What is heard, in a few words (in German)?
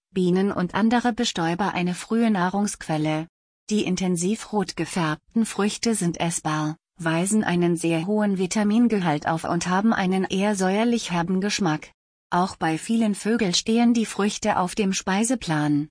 Kornelkirsche Text Deutsch